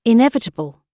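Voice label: "inevitable", British English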